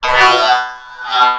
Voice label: Male Die Robot

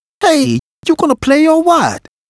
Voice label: Menu uk; Wii

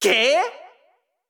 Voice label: Extreme Yeow

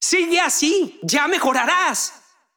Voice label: Extreme Positive

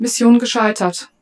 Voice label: German, Missfail

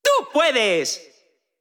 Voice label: Extreme Positive

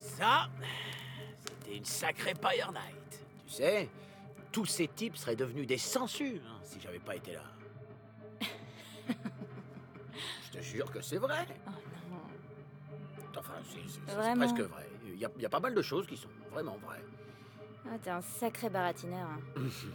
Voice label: dialogue french; outro; inFAMOUS Festival of Blood; ps3

